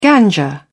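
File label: "ganja", English, British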